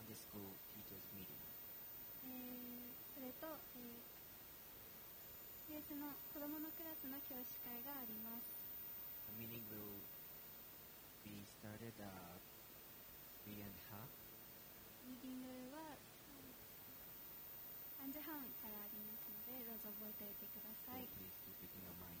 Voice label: announcement; sunday message 2021